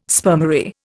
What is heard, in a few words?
"spermary", Indian